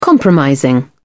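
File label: "compromising", English, British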